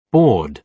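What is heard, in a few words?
"bored", British English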